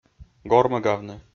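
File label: "гормӧгавны", Komi, male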